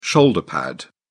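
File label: "shoulder pad", British English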